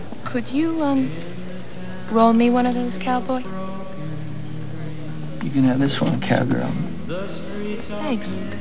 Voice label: cowboy